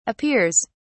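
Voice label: "appears", British English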